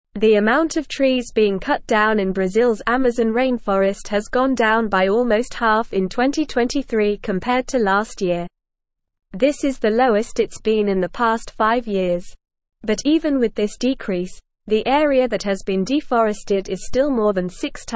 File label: English Newsroom Upper Intermediate NORMAL Reading; Brazils Amazon Deforestation Rate Drops by 50